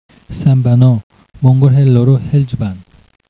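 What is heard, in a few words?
Mongolian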